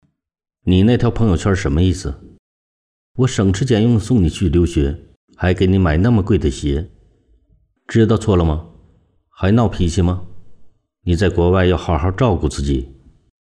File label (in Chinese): 广告台词